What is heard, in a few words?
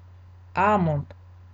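"almond", Japanese speaker of English, female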